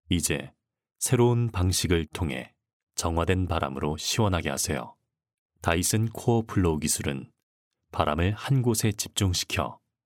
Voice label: male korean voice over narrative